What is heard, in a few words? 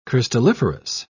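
"crystalliferous", British English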